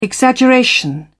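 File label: "exaggeration", British English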